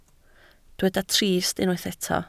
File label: "trist", Welsh